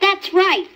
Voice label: "that's right", boy

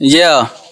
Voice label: "yo", Voice

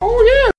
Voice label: "oh yeah", Voice

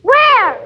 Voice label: "where", girl; questions

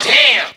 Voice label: "damn", expletives

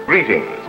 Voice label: greetings announcer; Voice; introductions

